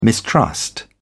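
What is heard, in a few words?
"mistrust", British English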